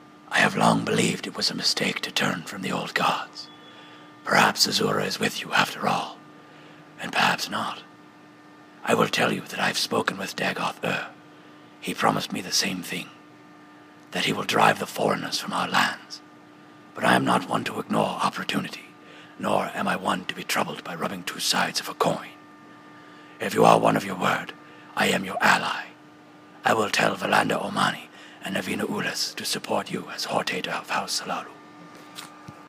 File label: dark elf audition